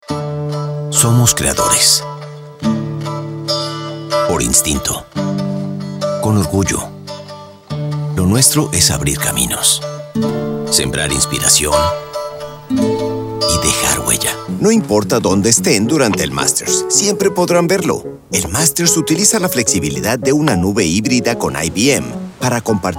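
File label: Commercial Spanish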